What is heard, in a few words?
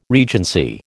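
"regency", American English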